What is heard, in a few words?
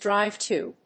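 "drive to", English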